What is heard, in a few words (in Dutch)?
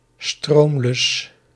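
"stroomlus", Dutch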